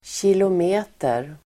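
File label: "kilometer", Swedish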